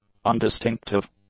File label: "undistinctive", English; adjectives